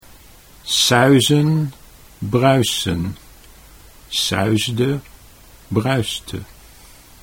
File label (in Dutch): "suizen bruisen", Dutch